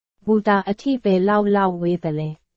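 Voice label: Burmese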